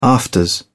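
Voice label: "afters", British English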